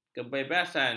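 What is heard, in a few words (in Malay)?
"kebebasan", Malay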